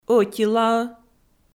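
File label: "ӧтилаӧ" Komi, female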